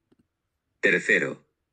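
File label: "tercero", Spanish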